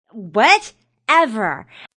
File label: Young Lady Says Whatever; Talking Sound Effects